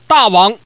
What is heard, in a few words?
Man